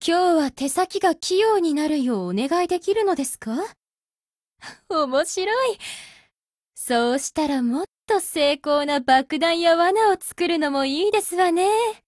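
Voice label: TANABATA Japanese; P10C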